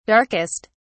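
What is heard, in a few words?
"darkest", British English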